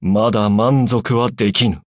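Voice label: levelup, Japanese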